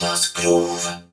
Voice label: "Das Groove", vox; Zero-G; Soundclash Nu Dance Electro; Acidized